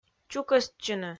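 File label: "чукӧстчыны", Komi, female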